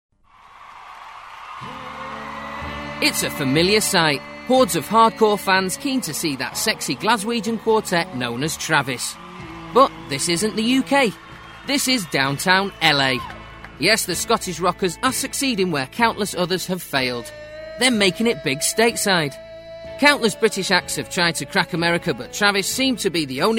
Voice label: Narration; Vocal Point

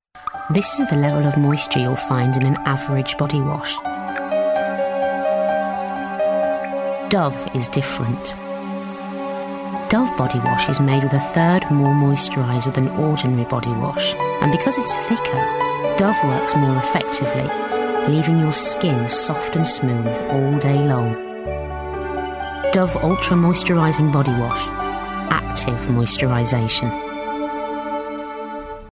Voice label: Commercial; Dove

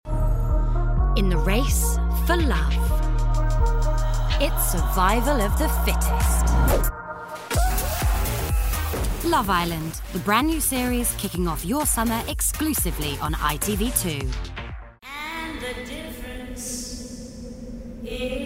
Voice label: Promos

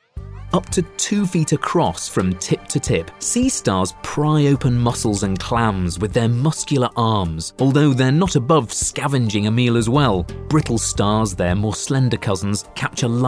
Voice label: Sea Documentary; Joe Fattorini

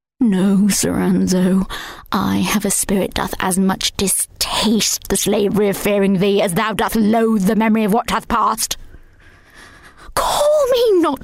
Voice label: Drama Mix; Vocal Point

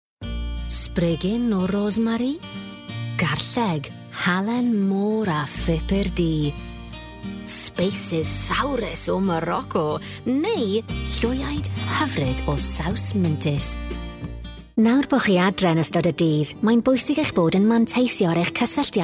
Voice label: Commercials Welsh

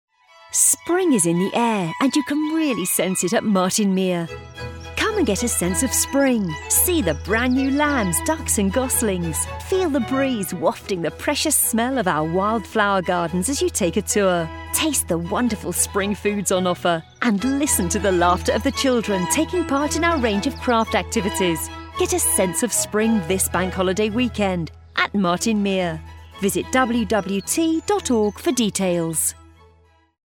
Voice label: Commercial; Martin mere; Vocal Point

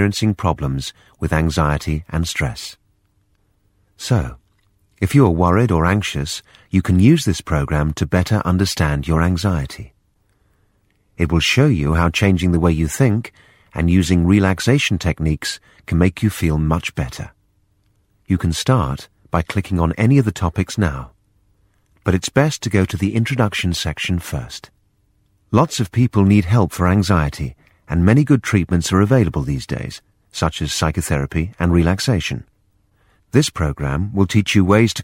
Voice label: Narration Calm teaching

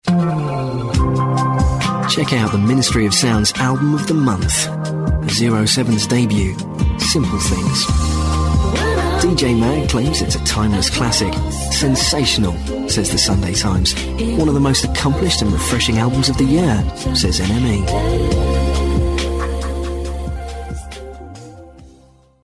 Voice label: Commercial

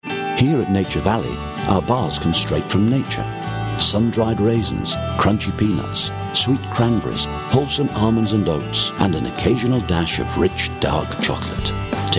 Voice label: Commercial Mix

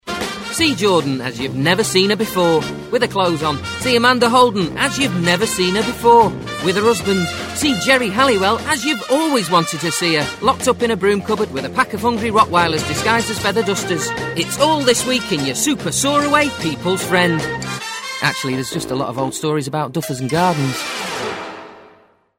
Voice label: Commercial Magazine

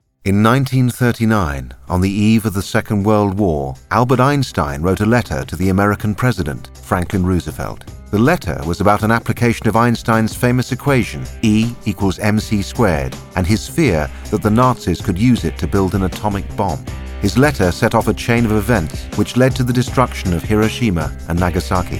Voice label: Narration; Vocal Point

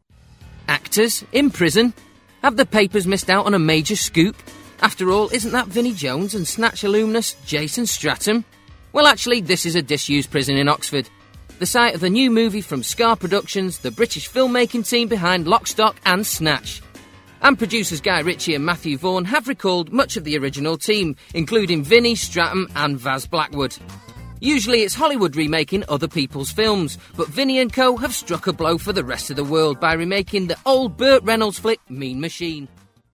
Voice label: Narration; Mean machine; Vocal Point

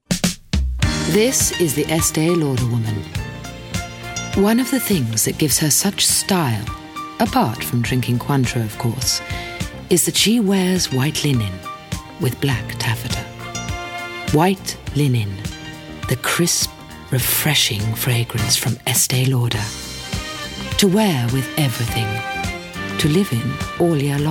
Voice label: Commercial; White linen; Vocal Point

